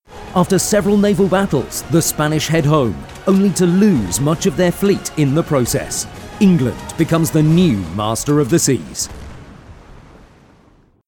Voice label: Narration History